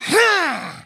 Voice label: Male Rat Attack

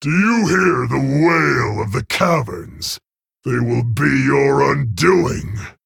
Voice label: Male Centaur; Barak; Guff

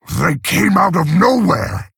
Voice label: Male Orc; Mogrin

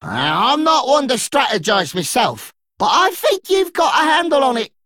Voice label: Male Dredger Idle

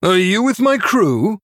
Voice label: Male Human Start

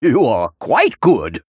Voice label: Male Human

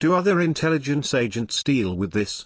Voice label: Male Gnome; Scabbs

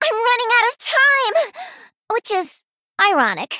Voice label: Female Gnome; Good Fight; Hero Time

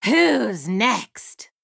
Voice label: Female Troll Attack

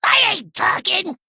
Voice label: Male Kobold Play

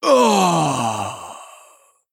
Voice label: Male Human Death

